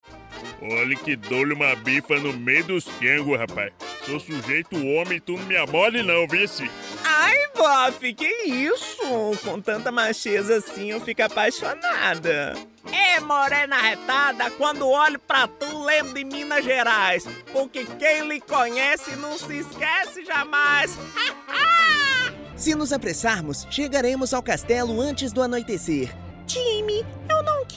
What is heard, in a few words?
MEDLEY DE PERSONAGENS CARICATO CARTOON CHARACTERS